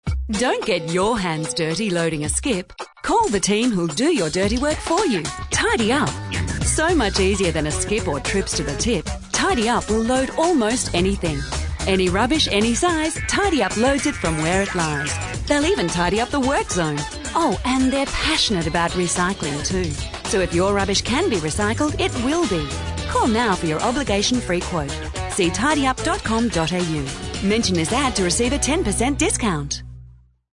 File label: voice recorded ad hook